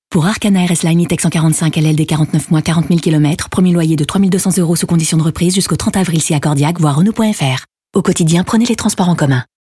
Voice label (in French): Pub Radio Mentions legales; RENAULT Arkana